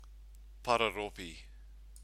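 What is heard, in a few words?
"pararopi", Māori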